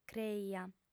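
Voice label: "creia", female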